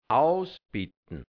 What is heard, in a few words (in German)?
"ausbittn", hianzisch Mundart, Burgenland; ausbitten; ausbedingen